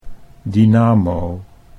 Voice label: "dynamo", Dutch

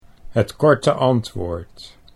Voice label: "het korte antwoord", Dutch

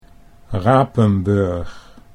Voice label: "Rapenburg", Dutch

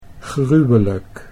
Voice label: "gruwelijk", Dutch

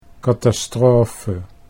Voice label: "katastrofe", Dutch

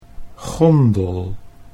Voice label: "gondel", Dutch

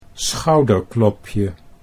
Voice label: "schouderklopje", Dutch

